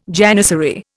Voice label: "janissary", Indian

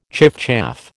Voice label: "chiffchaff", American

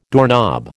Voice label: "doorknob", American

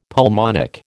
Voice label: "pulmonic", American

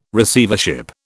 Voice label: "Receivership", British

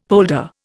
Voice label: "polder", Indian; Dictionary

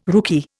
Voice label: "rookie", Indian

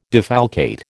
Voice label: "defalcate", American

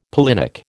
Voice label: "pollinic", American

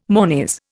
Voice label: "monies", Indian

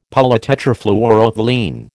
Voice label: "polytetrafluoroethylene", American

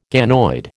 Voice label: "ganoid", American

